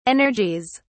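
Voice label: "energies", British English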